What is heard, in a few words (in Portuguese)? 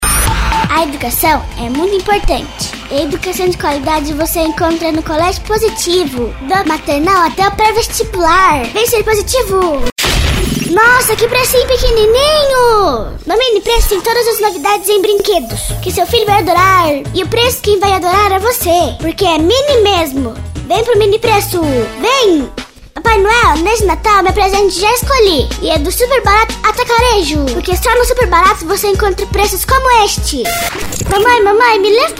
locutora infantil